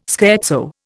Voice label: "scherzo", Indian